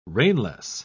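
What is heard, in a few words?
"rainless", British English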